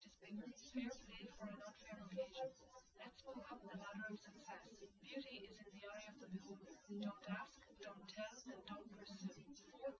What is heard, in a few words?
test female liverec 250ms 5cm src; SiSEC08; SiSEC underdetermined